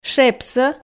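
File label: "шепсӧ", Komi, female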